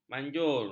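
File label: "manjur", Malay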